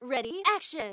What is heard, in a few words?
camcorder start woman; Samsung; GT-B7320 Omnia PRO ATT